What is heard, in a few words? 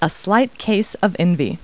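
woman; Talking Clip Words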